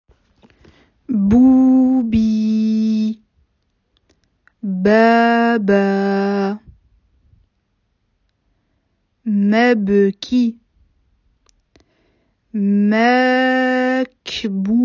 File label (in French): voix dictee; Auto-dictée; بـوبـي ـ بـابـا ـ مَـبْـكِ ـ ماكْبـوكْ ـ بـيك